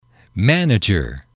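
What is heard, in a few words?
"manager", English, male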